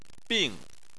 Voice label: "bing4", chinese

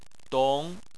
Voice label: "dong2", Chinese

